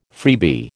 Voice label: "freebie", American